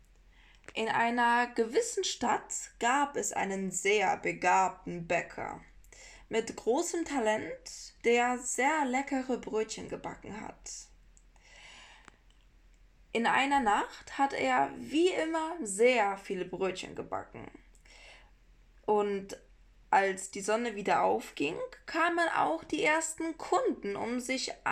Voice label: bread begin German